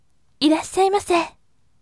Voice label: voice irassyaimase yasashime

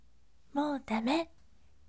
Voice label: voice moudame yasashime

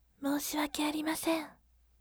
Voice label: voice moushiwake arimasen yasashime

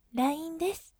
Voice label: voice line desu yasashime

